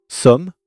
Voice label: "somme", French